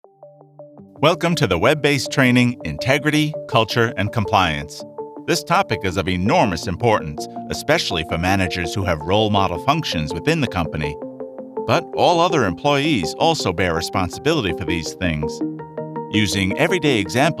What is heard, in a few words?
elearning